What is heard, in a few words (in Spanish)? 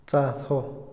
"tibio", Otomí, hombre; Percepción; INALI